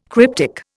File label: "cryptic", Indian